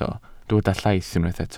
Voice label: "llaith", Welsh